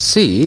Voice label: "c", male British; Alphabet